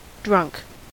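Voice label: "drunk", US English; pronunciation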